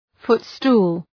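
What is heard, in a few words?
"footstool", English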